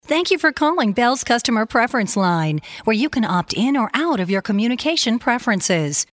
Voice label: us tele, engelsk us kvinne